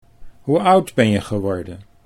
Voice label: hoe oud geworden, Dutch

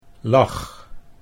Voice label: "lag", Dutch

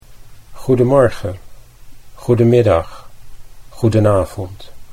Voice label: "goedemorgen", Dutch

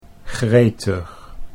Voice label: "gretig", Dutch

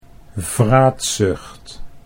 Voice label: "vraatzucht", Dutch

